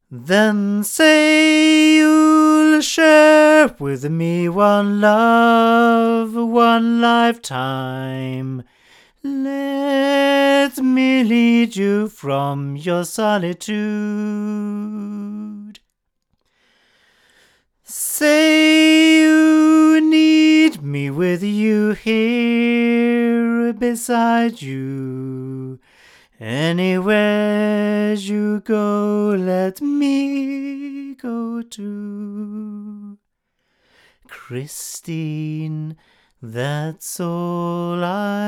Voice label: Hansen HCM-101 male, microphones recordings